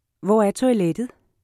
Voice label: toilet, danish